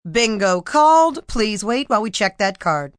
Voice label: Bingo Called, Bingo, female: 'Bingo called, please wait while we check that card', (human voice speaking); Talking Sound Effects; Sound Effects:Voice; VoiceBox